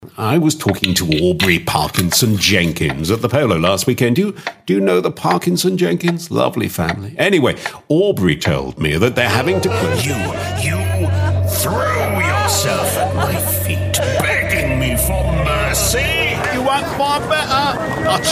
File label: character reel, British Voice Of God